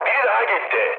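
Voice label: Turkish player killed enemy, mood med, speech; War Thunder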